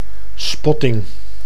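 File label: "spotting", Dutch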